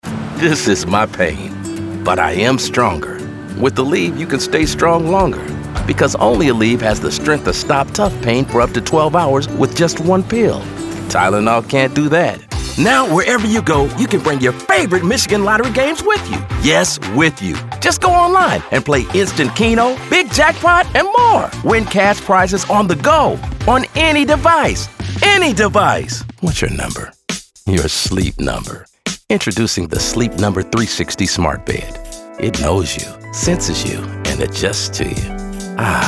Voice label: Commercial